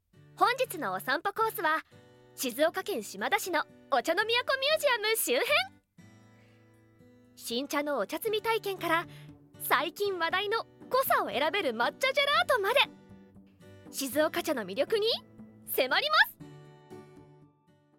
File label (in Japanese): ナレーション 旅番組 BGM on